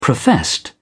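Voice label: "professed", English, British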